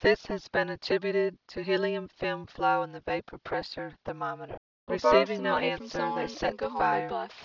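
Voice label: mixture 2 speakers female inharmonic jitter; Inharmonic: jittered; Timit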